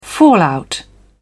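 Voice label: "fallout", English, British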